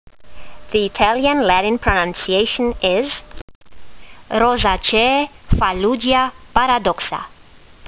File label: latin; Fallugia paradoxa